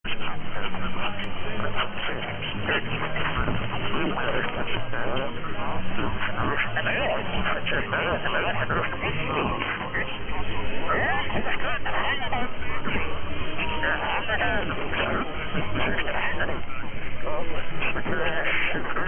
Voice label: Radio Fiji